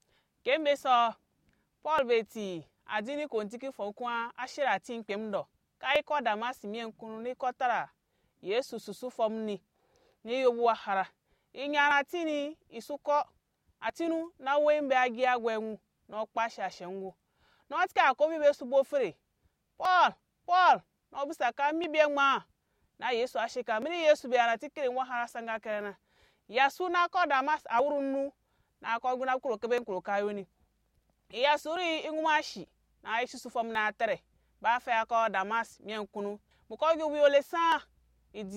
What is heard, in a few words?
Anufo; La conversion de Paul; Paul’s Conversion